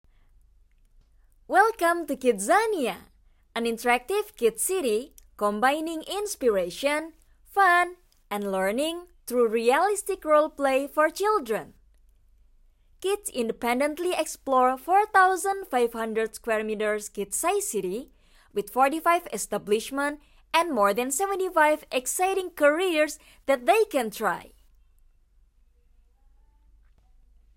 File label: English casual